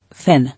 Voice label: "Thin", English

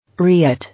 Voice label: "Riot", English

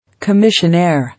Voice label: "Commissionaire", English

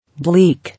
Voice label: "Bleak", English

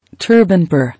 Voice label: "Turban", English

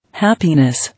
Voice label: "Happiness", English

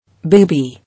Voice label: "Baby", English

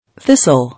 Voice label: "Thistle", English

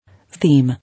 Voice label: "Theme", English

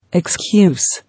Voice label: "Excuse", English

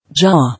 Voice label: "Jaw", English

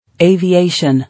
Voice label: "Aviation", English

